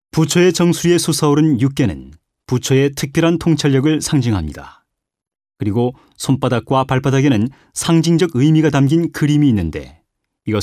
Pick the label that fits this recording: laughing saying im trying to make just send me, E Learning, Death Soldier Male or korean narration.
korean narration